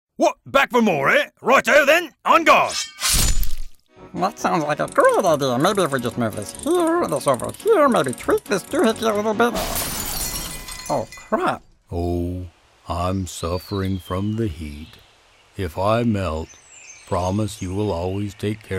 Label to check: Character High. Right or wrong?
Right